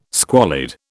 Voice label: "squalid", British